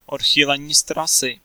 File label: Route Deviated, Czech, male